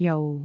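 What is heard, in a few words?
"jou4", Cantonese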